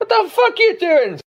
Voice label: what the fuck you doing british bloke